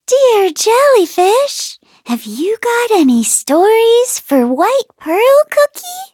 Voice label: touch, en; cookie run